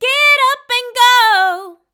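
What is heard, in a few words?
pop dance vocal get up and go, 124, E minor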